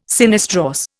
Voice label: "sinistrorse", Indian

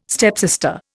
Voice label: "stepsister", Indian

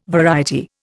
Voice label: "variety", Indian

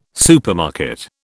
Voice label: "supermarket", British

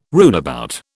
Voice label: "runabout", British

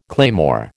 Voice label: "claymore", American

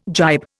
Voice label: "gibe", Indian

